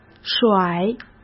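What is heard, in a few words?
"甩", Mandarin Chinese; chinese flashcards; hsk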